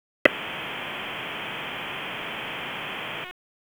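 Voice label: Fire Department HQ Dispatch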